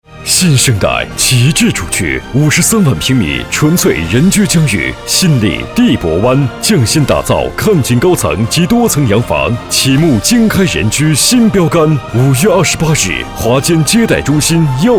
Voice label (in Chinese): 男 广告 大气沉稳; 地产; 新力地产